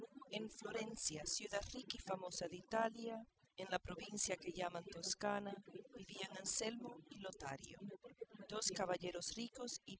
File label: female; SASSEC07